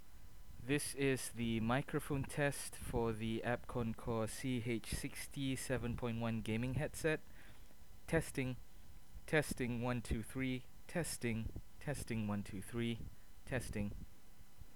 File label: 7.1 mic test; Abkoncore CH60